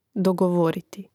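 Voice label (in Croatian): "dogovoriti", Hrvatski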